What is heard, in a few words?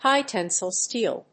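"HIGH TENSILE STEEL", English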